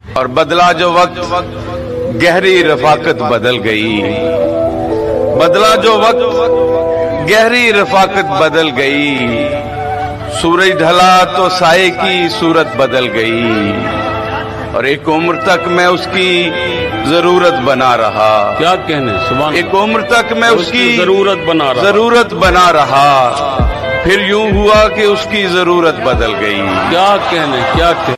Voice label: Heart Touching Shayari Ringtone

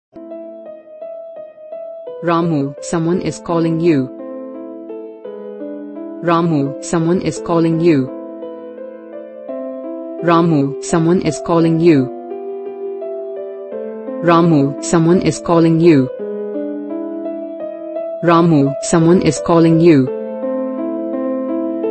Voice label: Name Ringtone with Music

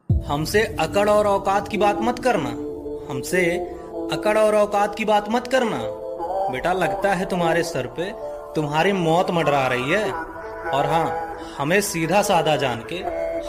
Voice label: Boys Attitude Ringtone

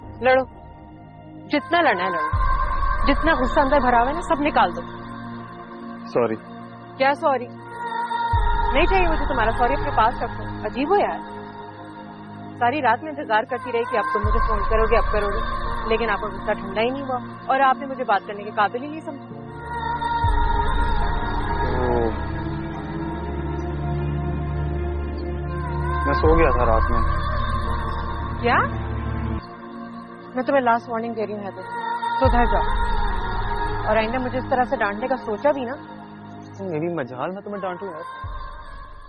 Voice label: Shayari Ringtone

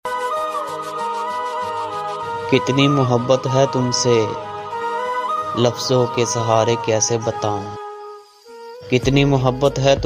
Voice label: Sad Shayari Ringtone

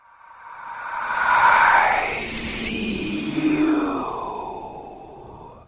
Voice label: Scary I See You Ringtone

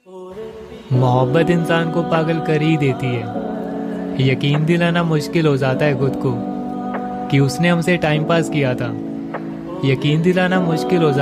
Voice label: Hindi Shayari Ringtone

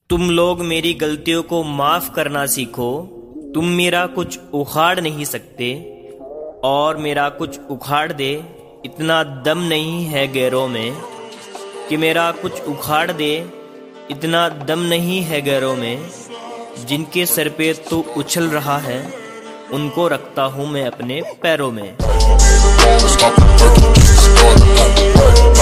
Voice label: Bad Boys Attitude Shayari

